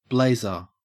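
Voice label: "blazer", English, Australian accent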